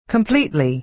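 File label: "completely", American English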